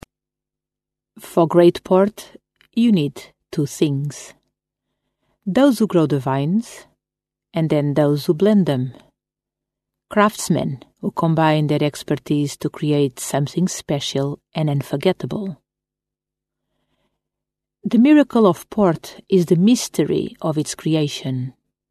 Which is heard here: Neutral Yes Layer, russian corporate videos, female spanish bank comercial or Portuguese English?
Portuguese English